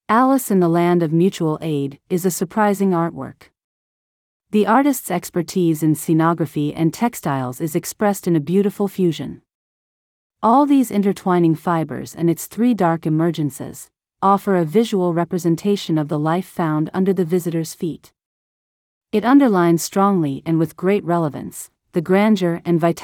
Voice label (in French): anglais